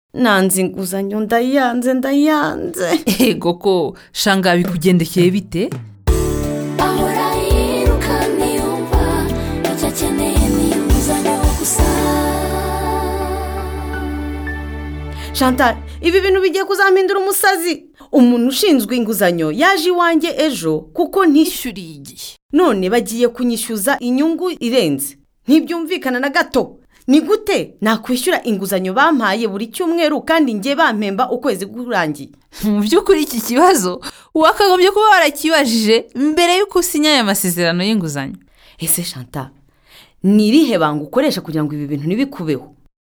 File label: Public Service Announcement Kinyarwanda; FinLit